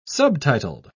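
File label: "subtitled", British English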